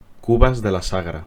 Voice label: "Cubas de la Sagra", Spanish